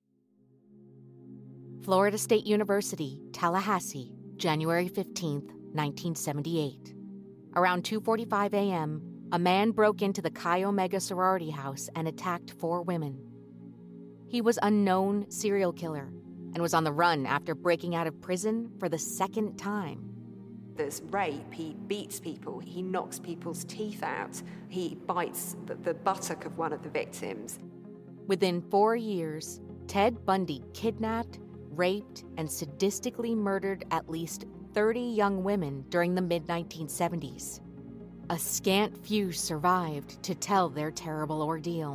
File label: promo; Spanish - Spain (Castilian)